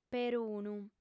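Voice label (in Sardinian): "perunu", female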